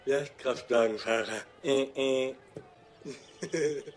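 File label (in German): verrückter mongo junge; new kids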